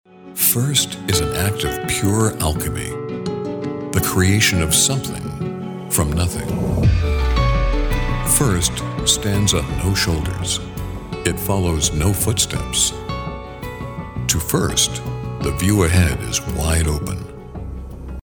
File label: Seductive